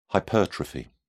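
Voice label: "hypertrophy", British English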